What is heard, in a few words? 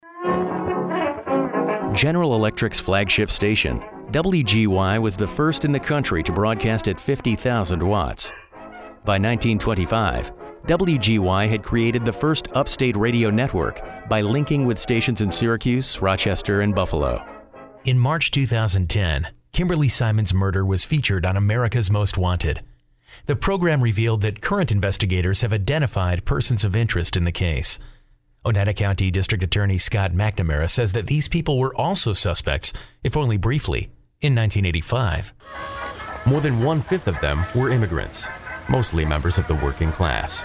DOCUMENTARY